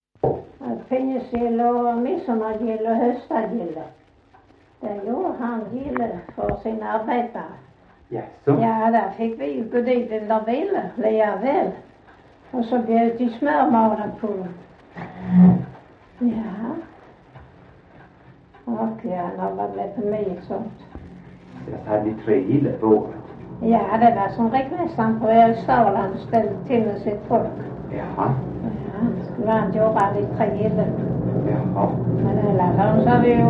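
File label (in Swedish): Sövde Skåne, Svenska sagor dialekter; Folkminnen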